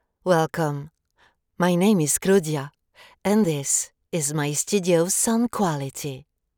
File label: Dry studio read